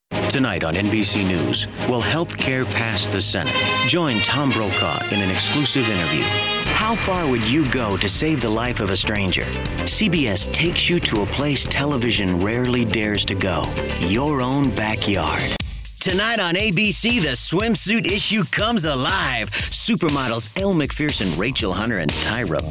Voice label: Promo